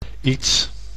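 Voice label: "iets", Dutch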